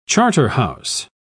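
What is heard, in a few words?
"charterhouse", British English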